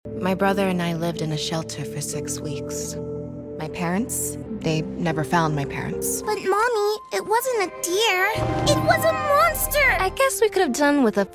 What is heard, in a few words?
Animation